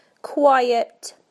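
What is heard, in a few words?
"quiet", British English; PictureDictionary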